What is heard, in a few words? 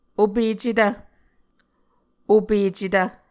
"opecetv", Muscogee